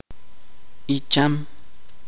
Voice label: viejo, chuj

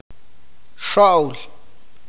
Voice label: "tener miedo v", awakateko

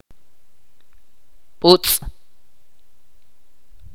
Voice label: "humo", Ch'orti'

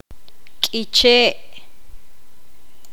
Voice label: poqomam bosque

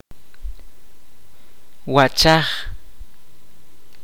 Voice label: "ojo", Kaqchikel

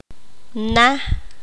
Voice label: "casa", Q'anjob'al

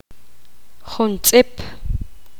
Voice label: poqomam pocos

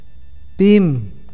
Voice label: "grueso de objetos planos", awakateko

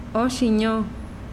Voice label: "su nariz", mazahua

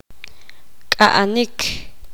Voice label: poqomam luchar pelear

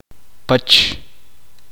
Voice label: uspanteko derecho

